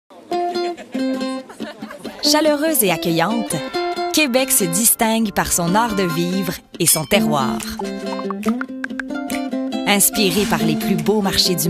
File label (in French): Bienvenue au Grand Marche de Quebec, Démo audio; Promotion Grand Marché de Québec